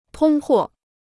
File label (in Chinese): "通货", Chinese